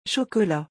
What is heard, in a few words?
"chocolat", French